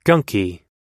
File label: "gunky", pronounce